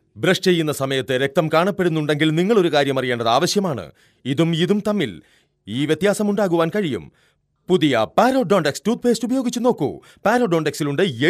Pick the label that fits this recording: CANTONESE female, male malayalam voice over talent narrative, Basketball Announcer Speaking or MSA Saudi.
male malayalam voice over talent narrative